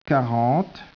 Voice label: speech, French